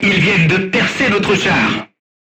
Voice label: voice message player tank hit, crew tanks french

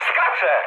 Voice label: bailout mood med, speech pol; War Thunder

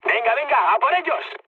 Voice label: enemy detected, mood med, speech; War Thunder

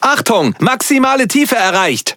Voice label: De Speech Alarms Sub Max Depth; World Of Warships

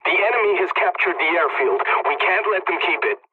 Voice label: pilot capture ground enemy, english; War Thunder

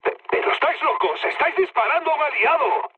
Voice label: player friendly fire, mood med, speech

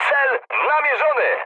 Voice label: near waypoint mood high, speech pol; War Thunder

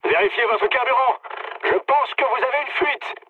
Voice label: tank damaged mood med, speech fr; War Thunder

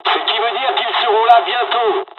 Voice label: dialog, dialogs french; War Thunder

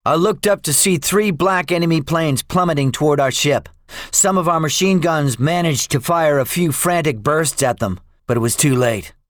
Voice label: debriefing, english; midway; War Thunder

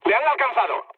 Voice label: aircraft destroyed mood med; War Thunder

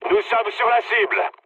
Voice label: near waypoint mood low, speech fr; War Thunder

